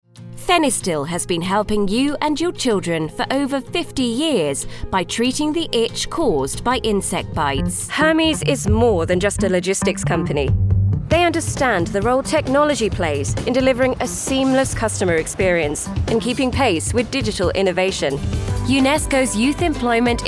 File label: Corporate Industrial